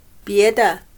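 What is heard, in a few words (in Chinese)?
"别的" Mandarin Chinese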